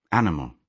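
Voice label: "animal", British English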